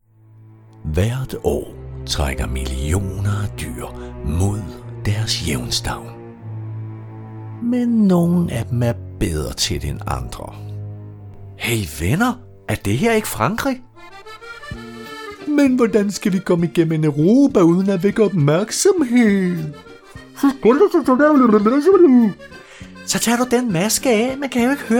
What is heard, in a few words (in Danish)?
Tegnefilm